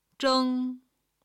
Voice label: "zheng", Chinese